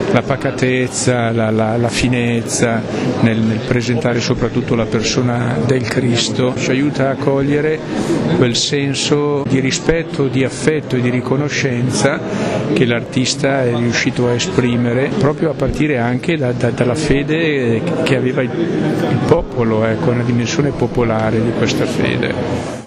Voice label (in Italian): intervista breve